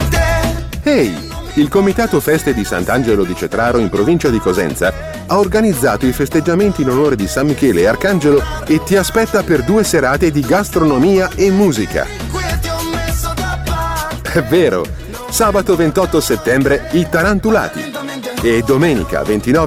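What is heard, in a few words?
Italian, Commercial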